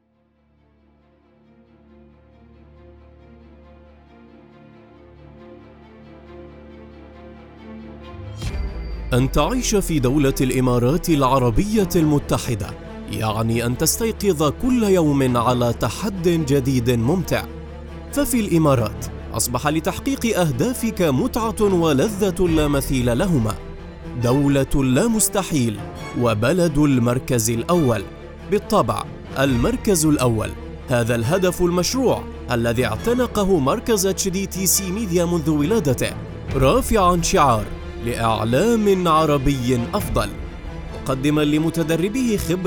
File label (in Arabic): مسابقة التعليق الصوتي; HDTC Media